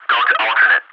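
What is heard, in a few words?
go to alternate, English ATC Messages; DCS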